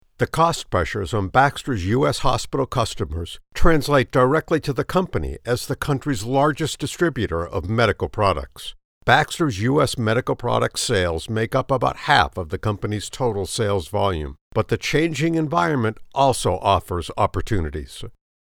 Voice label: english american corporate videos